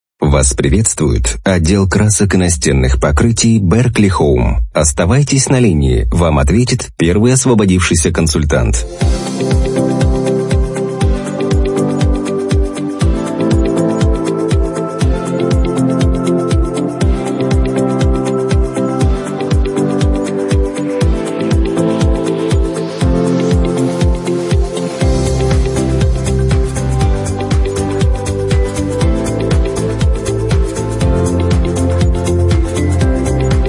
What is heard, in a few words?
IVR